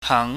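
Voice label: "hang", Cantonese, mid rising tone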